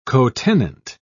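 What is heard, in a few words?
"cotenant", British English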